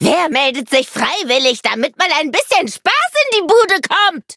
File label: loading, de; cookierunwiki